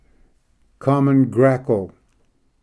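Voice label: "common grackle", English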